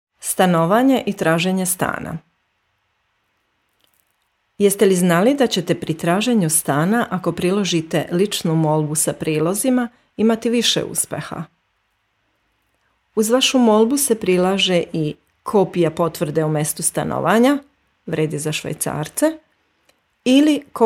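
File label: Serbian; wohnen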